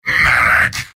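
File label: medic, robot; soldier; mvm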